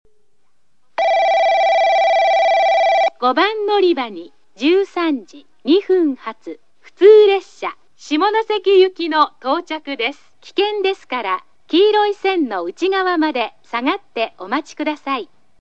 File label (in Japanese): 接近放送・女性（普通・下関）; 小倉駅